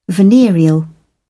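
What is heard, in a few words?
"venereal", British English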